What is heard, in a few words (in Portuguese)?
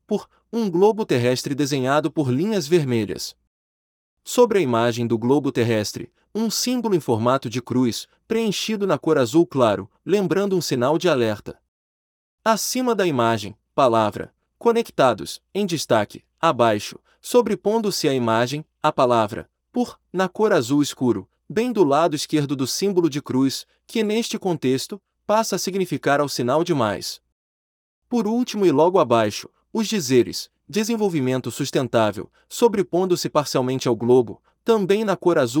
logomarca falada